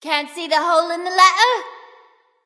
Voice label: taunt directed; cupid; bacchus